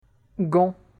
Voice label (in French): "gant", French